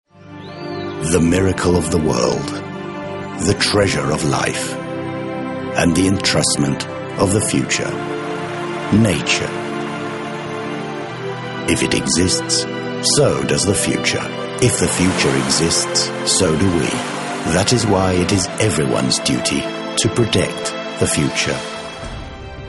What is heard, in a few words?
Corporate Image Promotion